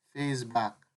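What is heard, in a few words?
"face back", Nigerian Pidgin, male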